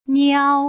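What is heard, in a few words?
"niao", Putonghua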